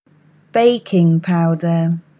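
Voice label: English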